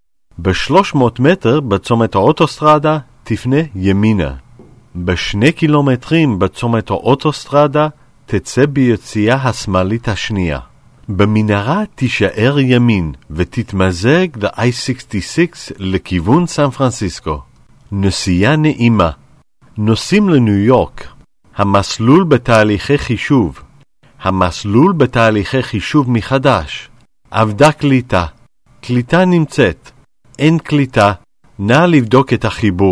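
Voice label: hebrew male voice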